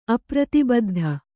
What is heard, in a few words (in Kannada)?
"ಅಪ್ರತಿಬದ್ಧ", Kannada